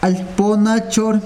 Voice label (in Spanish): corto, yanesha